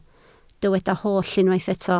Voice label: "holl", Welsh